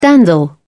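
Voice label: "dandle", British English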